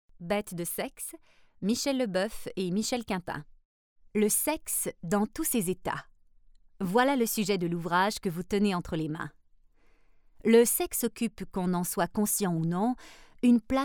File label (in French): Narration francais normatif Explicative, voix; Betes de sexe